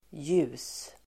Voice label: "ljus", Swedish

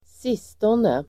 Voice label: "sistone", Swedish

